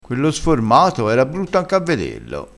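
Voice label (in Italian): "ringhiangolito", dialettale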